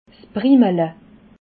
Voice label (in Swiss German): "primele", alsace